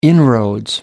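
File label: "inroads", British English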